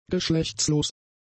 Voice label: "geschlechtslos", German; adjectives